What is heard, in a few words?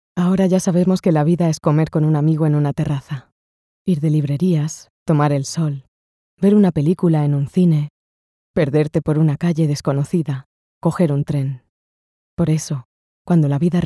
ES F Whispery